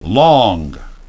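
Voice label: "long", English pronunciation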